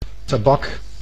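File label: "tabak", Dutch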